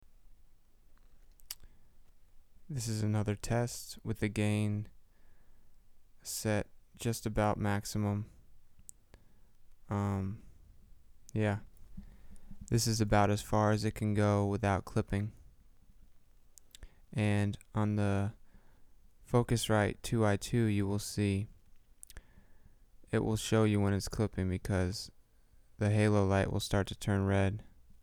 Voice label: Samson C01 max gain